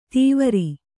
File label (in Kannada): "ದೀವರು", Kannada